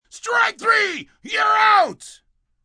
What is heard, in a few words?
Strike Out, umpire, male, baseball; Talking; VoiceBox